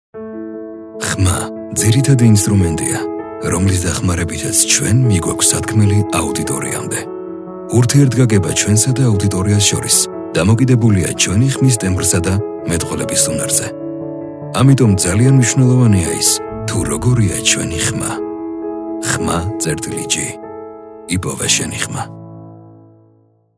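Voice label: Georgian, male, documentary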